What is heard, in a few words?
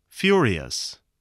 "furious", voice, English